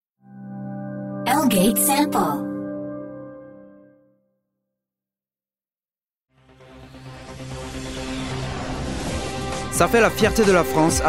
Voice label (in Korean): 프랑스 남 기본톤